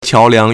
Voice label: "qiao2 liang2", Chinese